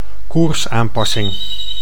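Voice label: "koersaanpassing", Dutch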